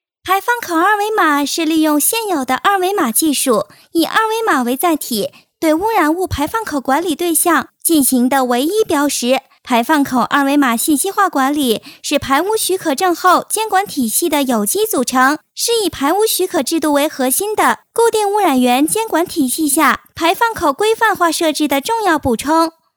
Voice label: 女童

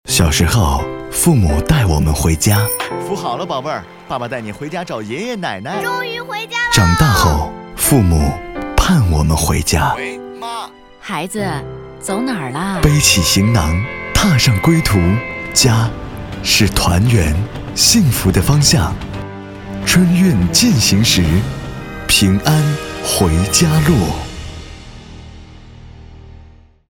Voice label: 温情版; 春运进行时

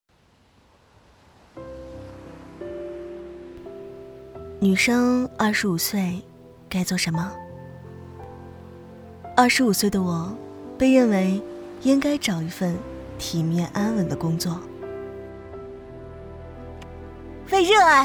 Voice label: 抒情走心

